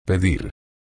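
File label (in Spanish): "pedir", español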